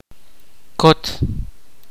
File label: kaqchikel; eagle